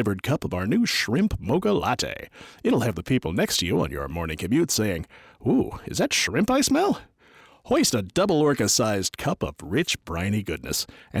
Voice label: PSA Coffee Vocal; ISHMAEL COFFEE